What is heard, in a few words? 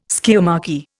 "skiamachy", Indian